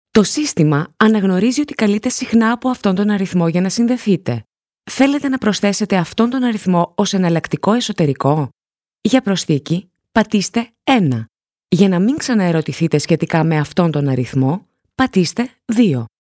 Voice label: greek ivr